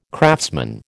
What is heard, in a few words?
"craftsman", American